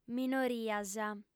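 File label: "minorias", female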